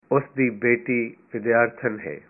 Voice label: her daughter is a student, punjabi

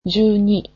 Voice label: "twelve", japanese

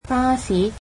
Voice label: "bus", cantonese

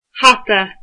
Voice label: "hat", Irish

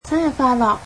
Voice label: "Happy birthday", Cantonese

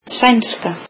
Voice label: icelandic Swedish

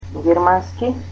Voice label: "German" Macedonian

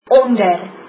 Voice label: under, afrikaans

